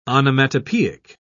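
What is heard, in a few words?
"onomatopoeic", British English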